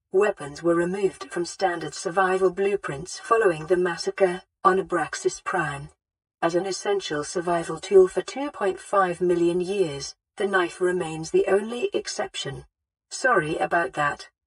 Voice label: Updated AI Speech